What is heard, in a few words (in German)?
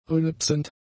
"rülpsend", German; adjectives